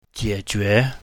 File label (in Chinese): "jie3jue2", mandarin